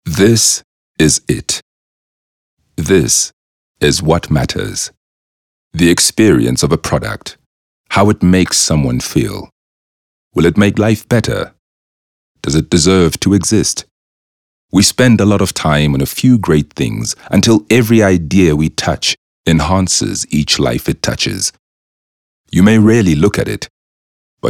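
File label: English Dramatic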